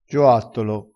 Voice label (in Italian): "gioattolo", dialettale crespinese